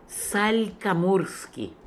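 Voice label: "Salkamourskian", Russian, pronounciations; Fourth Way; Beelzebub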